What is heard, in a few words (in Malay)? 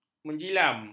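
"menjilam", Malay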